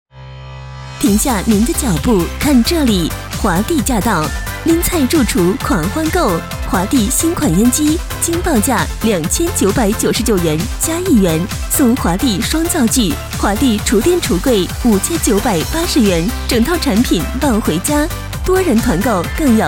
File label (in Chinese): 女 促销叫卖